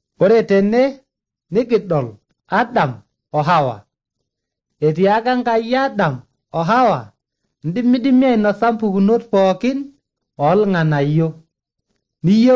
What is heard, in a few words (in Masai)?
maasai bsv nt drama; good news; bible